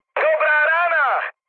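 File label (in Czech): Czech player killed enemy, mood medium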